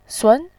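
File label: "sun", Mandarin Chinese, second tone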